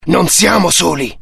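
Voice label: curious, Italian; mohaa; dialogue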